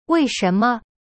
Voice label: "为什么" wei shen me, chinese; cyberchinese

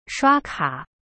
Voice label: "刷卡" shua ka, chinese; cyberchinese

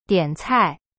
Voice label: "点菜", Chinese; dian cai

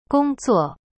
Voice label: "工作 gong zuo", Chinese; cyberchinese

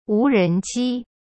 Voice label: "无人机" wu ren ji, chinese; cyberchinese

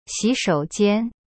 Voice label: "洗手间" xi shou jian, chinese

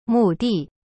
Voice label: "目的" mu di, chinese; cyberchinese

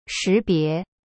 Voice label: "shi2bie2", Chinese; cyberchinese

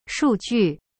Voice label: "数据" shu ju, chinese

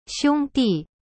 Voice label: "兄弟" xiong di, chinese

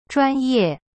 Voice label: "专业", Chinese; zhuan ye; cyberchinese

